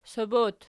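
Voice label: sob plural, Archi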